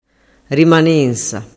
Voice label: "rimanensa", dialettu